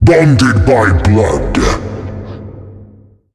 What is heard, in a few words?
voice super; draco brawl stars